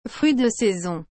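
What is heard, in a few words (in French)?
"fruits de saison", French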